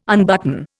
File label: "unbutton", Indian